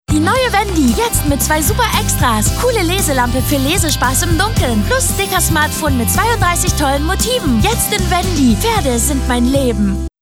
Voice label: TV Spot; Wendy

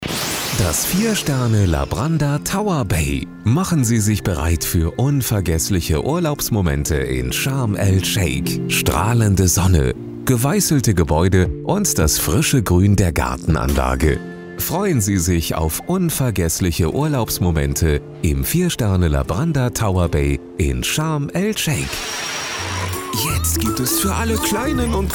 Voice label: TV Stimme

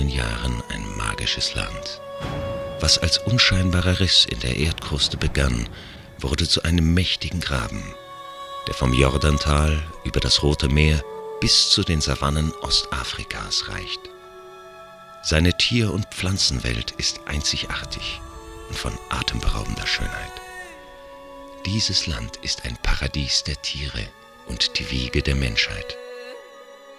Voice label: Doku Vulkane

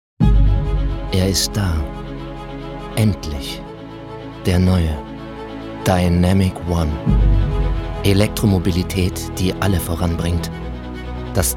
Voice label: Werbung

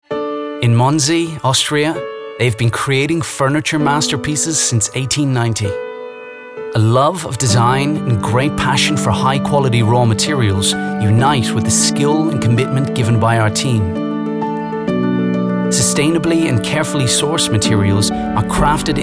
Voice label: Werbespot neutral Irisch Akzent; VilleroyBoch

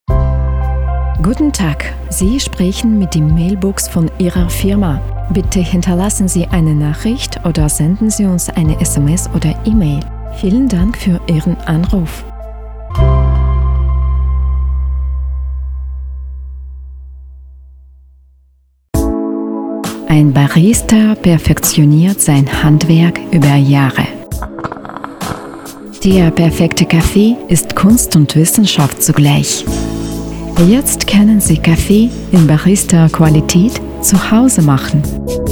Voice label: Beispiel Deutsch

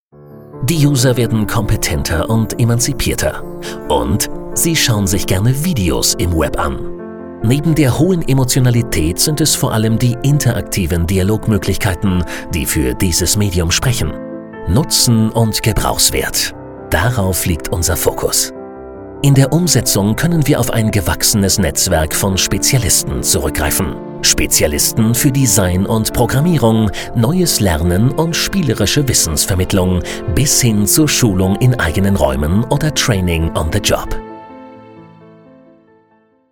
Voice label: Doku

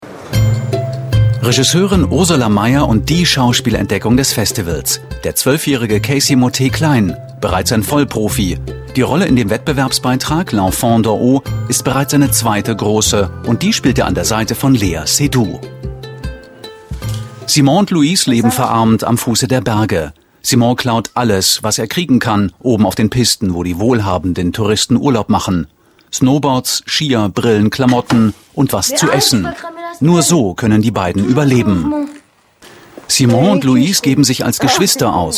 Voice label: Kommentar; 3sat; Kulturzeit; BERLINALE

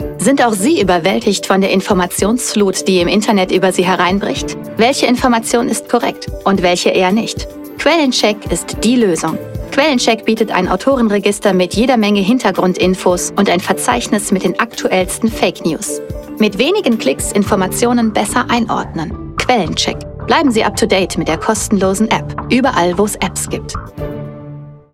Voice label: werbung app

